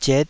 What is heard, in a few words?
"ziet5", taiwanese